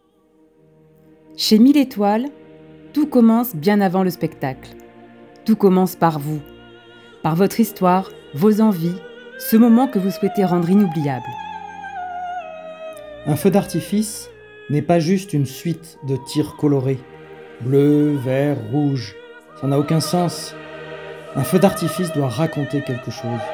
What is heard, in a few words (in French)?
Voix Off presentation